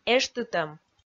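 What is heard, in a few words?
"эштытӧм", Komi, female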